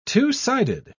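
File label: "two-sided", English, British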